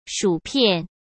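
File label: "薯片", Mandarin Chinese; hsk; chinese-flashcards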